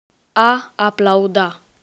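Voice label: to clap, spoken Romanian; PictureDictionary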